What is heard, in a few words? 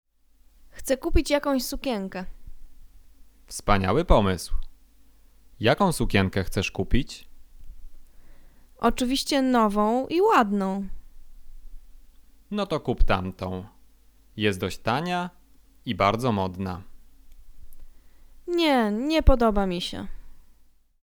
conversation; lektorek; firstyear